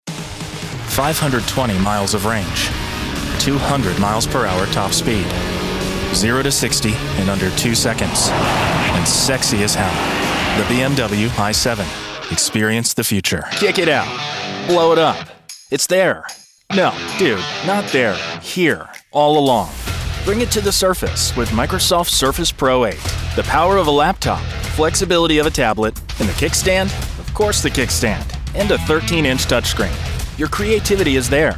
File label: Commercial, voiceover